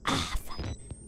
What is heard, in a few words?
aaah fuck